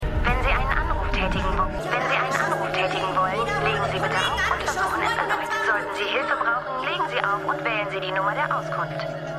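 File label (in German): Telefonstimme, Stimmproben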